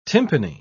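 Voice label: "tympani", English, British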